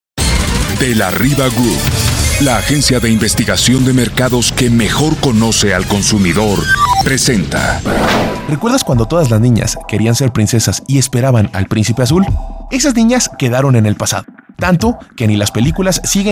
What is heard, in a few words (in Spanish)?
mujer adulta